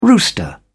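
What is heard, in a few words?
"rooster", English, British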